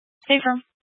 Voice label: Sheriff Dispatch, scanner; Mesa County

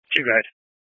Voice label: Fire Dispatch

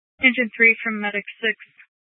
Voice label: Fire Dispatch, scanner

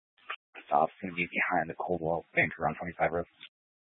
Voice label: Police Department Dispatch; Grand Junction

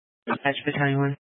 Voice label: Fire Dispatch, scanner

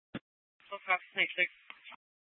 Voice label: Grand Junction Fire Department Dispatch

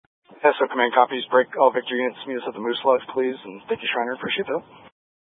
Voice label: Tactical Incidents, scanner

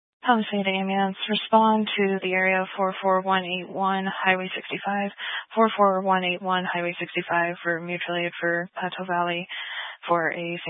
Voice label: Grand Junction Fire Department Dispatch

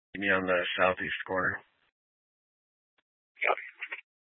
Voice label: Police Department Dispatch, scanner

